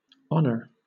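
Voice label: "honour", British English; pronunciations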